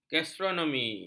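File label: "gastronomi", Malay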